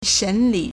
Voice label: "shen3li3", Chinese